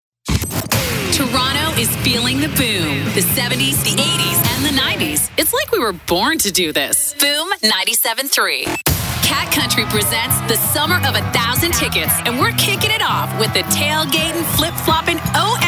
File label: Radio Imaging